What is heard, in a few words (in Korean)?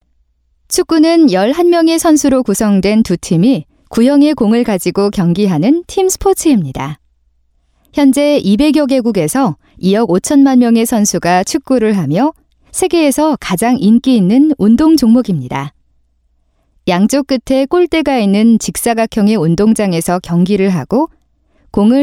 Korean Clean VO; Female